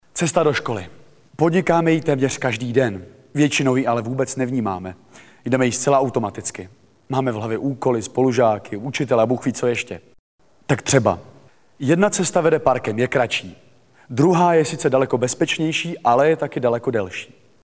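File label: male Czech documentary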